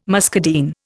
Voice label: "muscadine", Indian